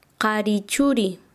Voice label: Chanka, quechua; hijo del varon